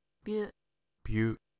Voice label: "byu", Japanese

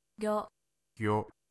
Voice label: "gyo", Japanese

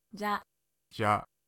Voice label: "jya", Japanese

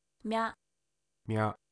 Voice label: "mya", Japanese

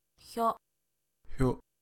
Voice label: "hyo", Japanese

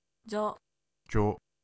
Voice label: "jyo", Japanese

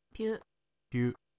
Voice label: "pyu", Japanese

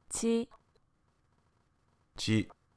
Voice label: "chi", Japanese

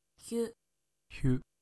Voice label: "hyu", Japanese

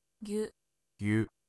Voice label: "gyu", Japanese

